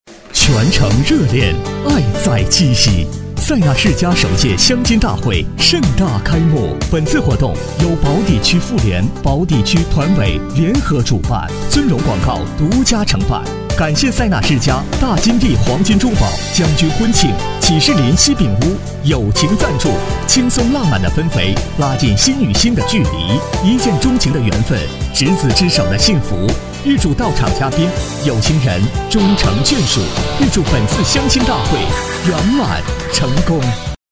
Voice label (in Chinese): 男 广告 温情 温柔; 相亲大会